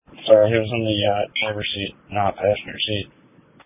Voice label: Police Tactical Encrypted